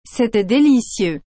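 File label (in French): "C'était délicieux", French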